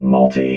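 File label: "multi", robot voice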